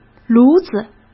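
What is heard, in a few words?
"炉子", Mandarin Chinese; chinese flashcards; hsk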